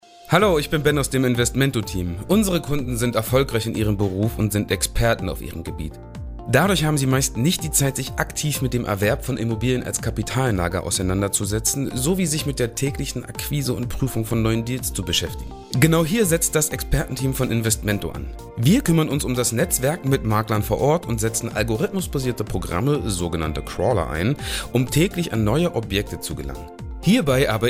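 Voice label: German, male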